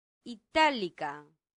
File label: "italica", latin; santillana